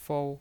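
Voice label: "fou" Mandarin Chinese, first tone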